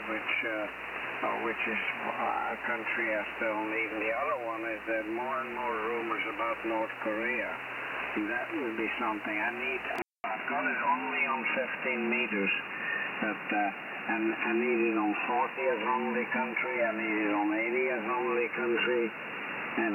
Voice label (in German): 14194khz USB Amateurfunk